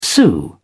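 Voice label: "sue", British English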